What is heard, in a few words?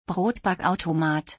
Bread maker in German